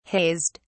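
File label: "hazed", British English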